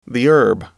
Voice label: "herb", English